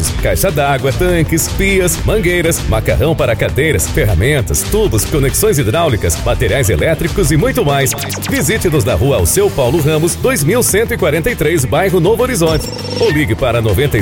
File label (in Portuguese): locutor regional, comerciais; Materiais de Construcoes